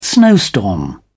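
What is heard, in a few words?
"snowstorm", British English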